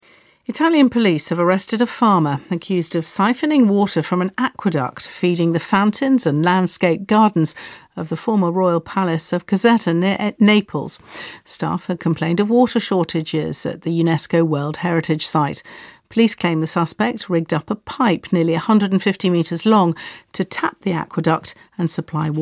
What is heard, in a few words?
italian farmer tapped fountain water